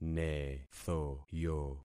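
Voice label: "nethoyo", concatenated syllables; Affixing experiment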